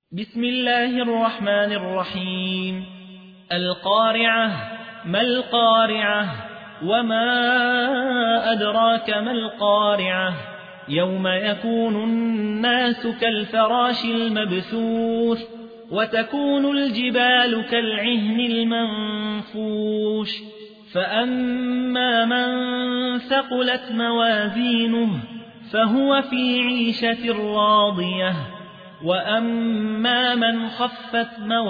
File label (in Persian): ترتیل; یحیی حوی; سوره قارعه